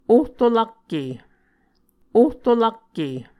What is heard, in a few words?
"ohrolakke", Muscogee; Mvskoke Words with Repeated Consonants; A Dictionary of Creek/Muskogee; SIL International